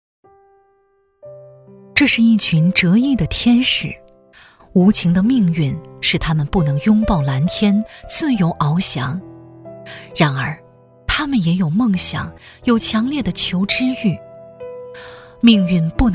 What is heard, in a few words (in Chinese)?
女 学校宣传 特殊教育学校 抒情温暖; 湖口县特殊教育学校; 质感知性; 深情感人